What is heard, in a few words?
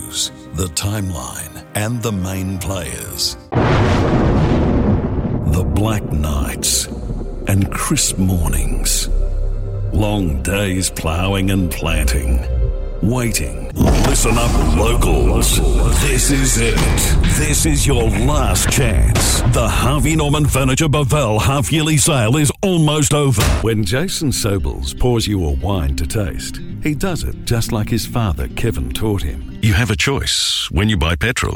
english australian commercial